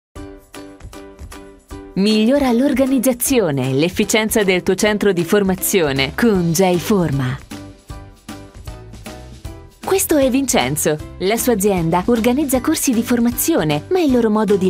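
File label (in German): Italian, Fremdtake Freundlich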